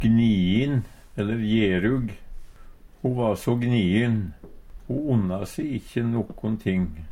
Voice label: "gniin", Numedalsmål; Ho va so gniin, ho onna se ikkje nokon ting.

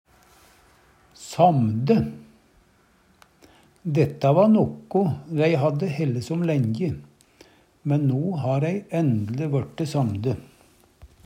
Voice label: samde, Numedalsmål

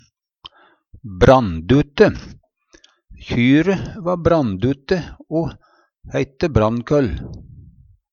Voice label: brandute, Numedalsmål; Adjektiv

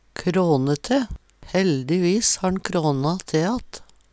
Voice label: "krane te", Numedalsmål

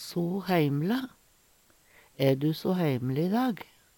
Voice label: "so heimle", Numedalsmål; er heime

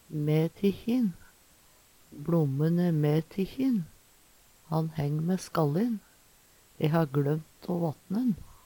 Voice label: mætikkjin, Numedalsmål

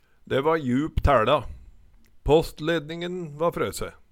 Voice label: tæLa, Numedalsmål

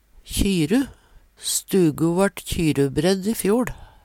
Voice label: kjyru, Numedalsmål

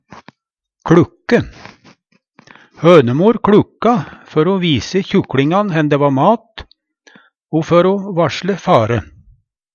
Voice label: kLukke, Numedalsmål; Hønemor kLukka før o vise kjukLingan hen dæ æ mat o før o varsle fare.

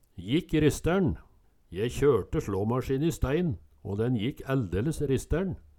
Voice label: jikk ristærn, Numedalsmål

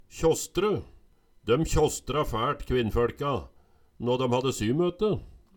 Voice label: kjastre, Numedalsmål

